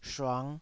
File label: "shuang5", taiwanese Syllables